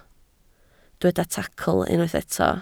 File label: "tacl", Welsh